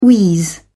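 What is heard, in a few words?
"wheeze", British English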